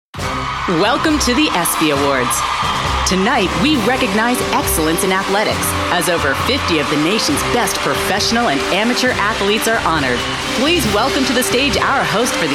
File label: english american announcements